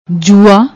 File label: "jua", Punjabi